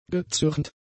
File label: "gezürnt", German; adjectives